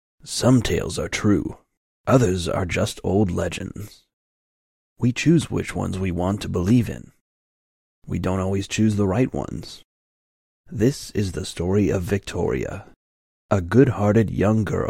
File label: Voice Over Full; Secrets of Magic: The Book of Spells